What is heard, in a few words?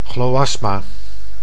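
"chloasma", Dutch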